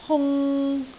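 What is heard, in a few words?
"hung3", Cantonese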